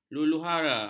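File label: "luluhawa", Malay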